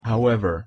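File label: "however", English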